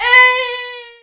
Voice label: "nooo", Finnish; Worms Armageddon

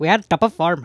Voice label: "fatality", Speech; Worms Armageddon; The Raj

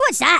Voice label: "INCOMING", Angry Scots; Worms Armageddon

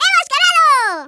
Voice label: victory, Hispanic; Worms Armageddon

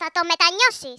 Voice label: "YOU'LL REGRET THAT", Greek; Worms Armageddon

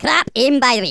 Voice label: "MISSED", Angry Scots; Worms Armageddon

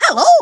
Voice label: "hello", Kamikaze; Worms Armageddon

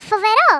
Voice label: "Revenge", Greek; Worms Armageddon

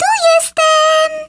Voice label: HELLO, Speech, Polish; Worms Armageddon

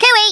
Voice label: "Hello", Australian; Worms Armageddon

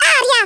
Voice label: GO AWAY, Italian; Worms Armageddon

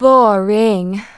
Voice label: "Boring", Smooth Babe; Worms Armageddon

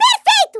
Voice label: "PERFECT", Portuguese; Worms Armageddon

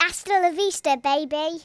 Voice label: fatality, Kidz; Worms Armageddon

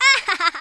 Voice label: "brilliant", Hispanic; Worms Armageddon

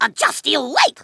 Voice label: "just you wait", Kamikaze speech; Worms Armageddon

